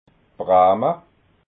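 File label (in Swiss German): "brama", Alsatian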